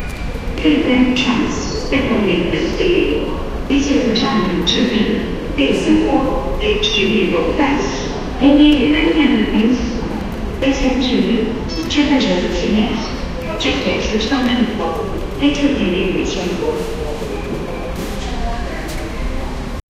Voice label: airport station announcement; pa

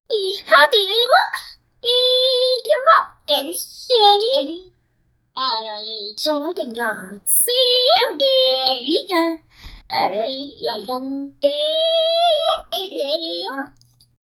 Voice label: like anime girl, character voice

